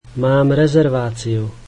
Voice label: I have a reservation, slovak